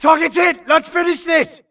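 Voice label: grunt detect Player Low Health; imc; Titanfall 2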